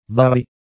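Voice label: "Bari", Italian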